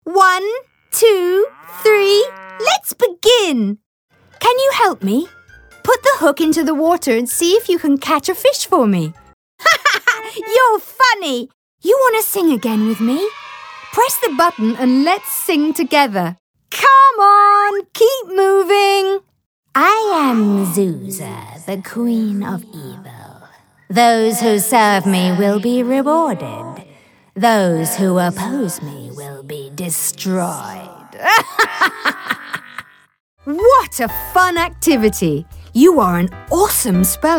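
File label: British English, toys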